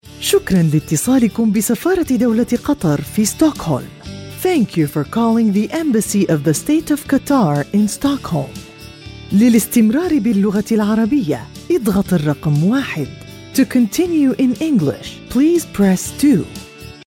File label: arabic female english ivr